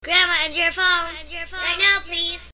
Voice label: gramma answer phone